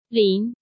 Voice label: "Lin" 1